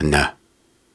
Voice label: Soft Syllables and Imaginary Words, Viking Vocal